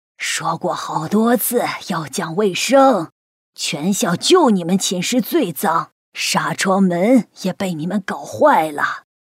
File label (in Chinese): 女 模仿 老奶奶